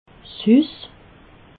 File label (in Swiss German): "hus", alsace